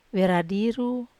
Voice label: "veradiru", Wamesa

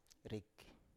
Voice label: "rik", Biak

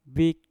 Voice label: "bik", Mpur; kuku

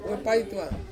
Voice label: "wepaituang" old, Ansus

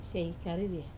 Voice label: "sai kariri", smell bad, Wamesa

